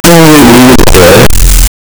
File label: speaking sounds, voice acting; mii game